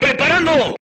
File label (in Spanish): voice message reloading, Spanish